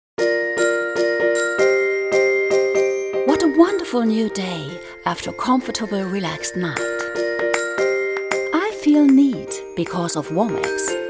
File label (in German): Spot; Womax